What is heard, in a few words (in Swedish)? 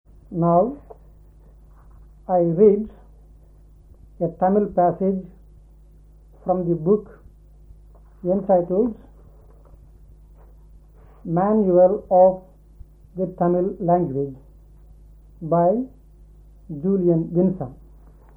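Säby Västmanland, dialekter; Folkminnen; Svenska sagor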